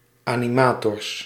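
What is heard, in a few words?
"animators", Dutch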